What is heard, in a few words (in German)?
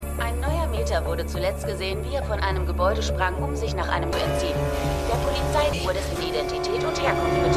Nachrichtensprecherin; The Flash